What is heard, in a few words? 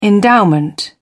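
"endowment", British English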